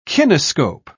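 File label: "kinescope", British English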